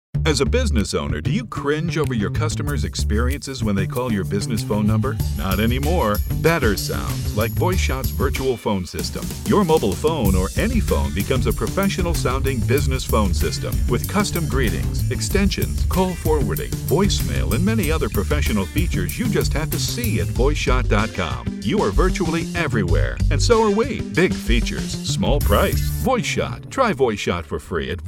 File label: Radio; VoiceShot